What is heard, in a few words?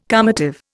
"calmative", Indian